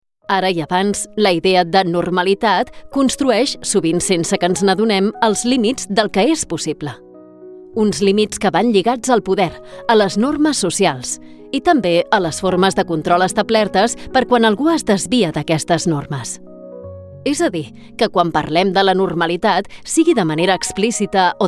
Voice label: Catalan Espana Femenina Adulta

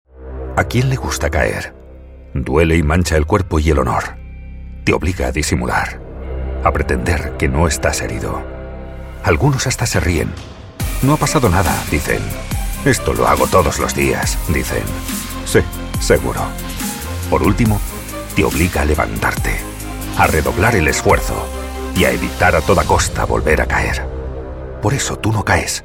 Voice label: Espanol Espana Masculina Adulta

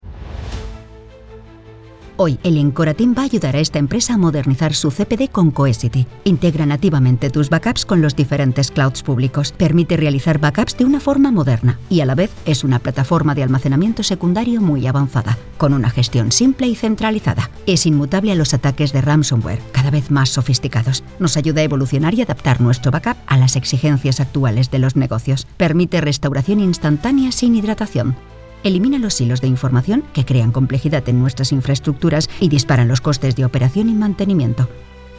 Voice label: Espanol Espana Femenina Adulta

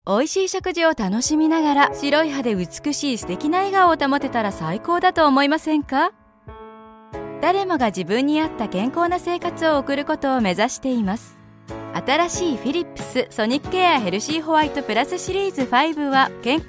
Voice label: Japones Japon Femenina Adulta

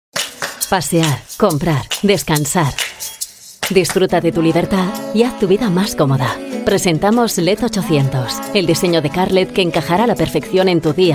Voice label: Espanol Espana Femenina Adulta

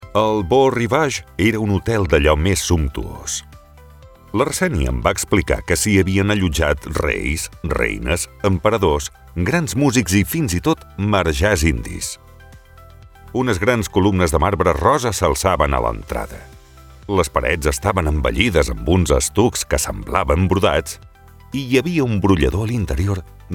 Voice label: Catalan Espana Masculina Adulta

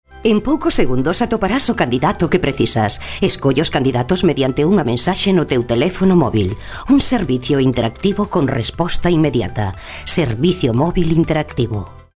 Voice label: Gallego Espana Femenina Adulta

